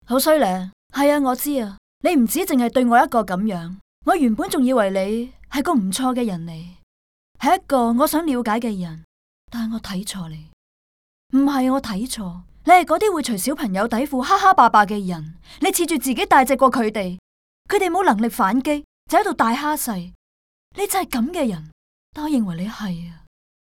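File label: chinese cantonese female character; voice demos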